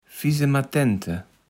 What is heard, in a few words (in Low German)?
"Fisematente", Platt